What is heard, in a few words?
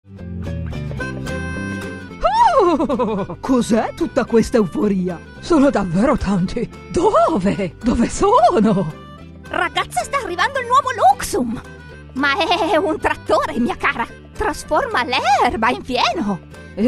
Animals Characters, IT, MUSIC; Voice Over for Animation and Cartoon Characters